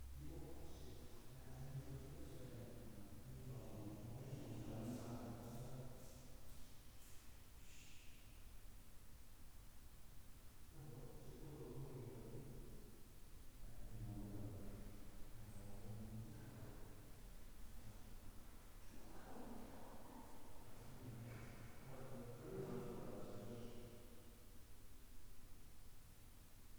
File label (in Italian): campione voce straniera frame intero